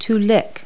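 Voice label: "lick", English word; Multi-Lingual Talking Picture Dictionary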